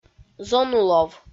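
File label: "зонулов", Komi-Zyrian, female; audio dict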